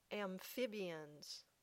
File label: "amphibians", English